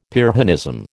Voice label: "Pyrrhonism", American